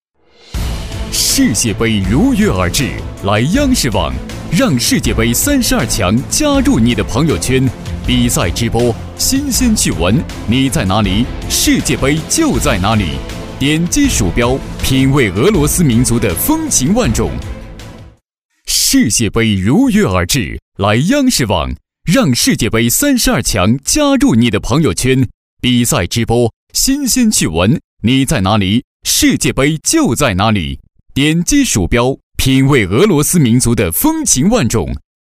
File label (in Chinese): 男, 广告, 带干音; 央视网世界杯